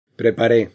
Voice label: acento lexical "preparee", castilla; spansk; fonetica; consonantes-castilla

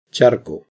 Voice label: cons ch "charco", castilla; spansk; fonetica; consonantes-castilla

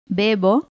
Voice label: "bebo", Cuba, Pinar del Río femenina; spansk; fonetica

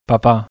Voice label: cons p "papa", bizkaia; spansk; fonetica; biskaia

